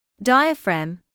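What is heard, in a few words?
"diaphragm" English, British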